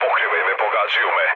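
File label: Serbian aircraft damaged mood low, speech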